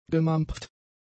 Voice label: "gemampft", German; adjectives